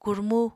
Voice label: "kurmu", Apatani

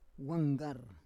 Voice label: "wanggar", Roon

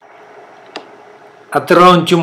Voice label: chicken egg, Mokilese

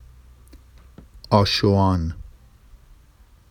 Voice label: "ɑʃowɑn", Vafsi; آشُوان; n, adv tonight; Vafsi Talking Dictionary

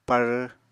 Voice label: "pár", apatani

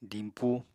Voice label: "dimpu", Apatani

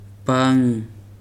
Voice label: "betel nut", Weri

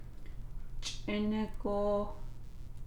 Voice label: navajo

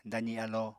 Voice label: "dazi alo", Apatani

